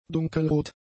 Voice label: "dunkelrot", German; adjectives